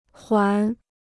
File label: "缓", Chinese